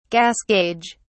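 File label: "gas gauge", British English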